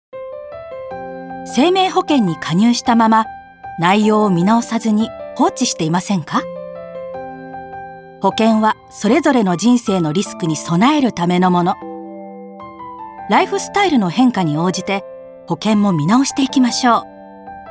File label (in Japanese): 低音 やや明るい丁寧ゆったり 「保険」